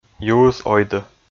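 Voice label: "юыс ойдӧ", Komi, male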